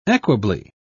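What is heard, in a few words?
"equably", British English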